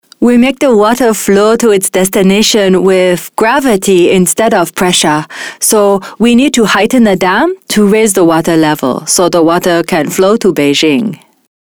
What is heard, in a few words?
Chinese